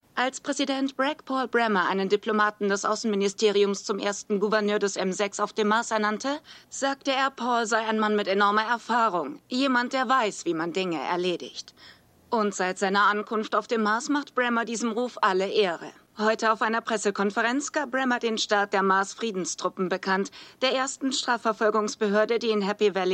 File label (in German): Nachrichtensprecherin; For All Mankind